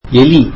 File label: tamil mouse